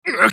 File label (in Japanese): ウォーロック男･被ダメージ